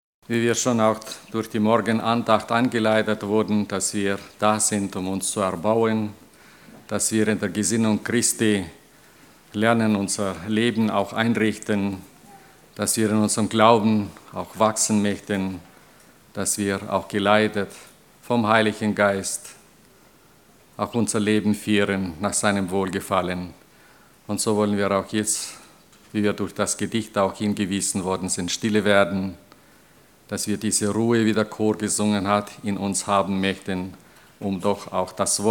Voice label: Ansage; Der Bräutigam und die Braut

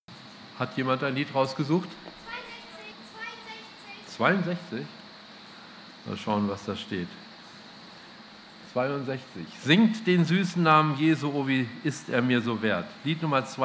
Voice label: Ansage

